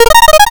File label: robo voice